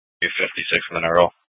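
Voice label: Scottsdale Police Department South Dispatch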